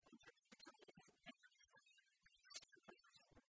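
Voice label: ыджыдджык чойыс велӧдіс чоя-вокаӧс серпасасьны, Komi, female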